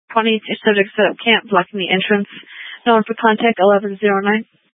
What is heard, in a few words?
Police Dispatch